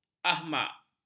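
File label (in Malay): "ahmak", Malay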